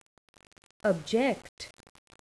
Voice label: "object" verb; Call Centers